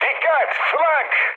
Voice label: damaged aa, mood low, speech tur; War Thunder